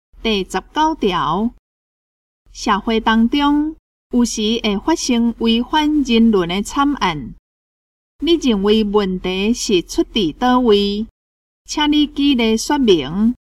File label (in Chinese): 口語表達, 閩南語; 閩南語語言能力認證; 金安咱來考C